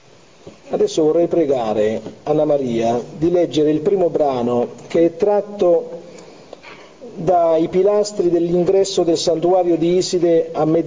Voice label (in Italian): Lettura Inno di Isidoro (Si sente molto male); conferenza